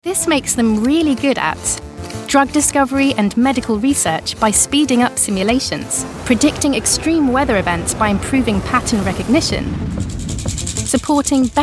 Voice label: elearning